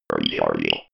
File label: robot voice recharging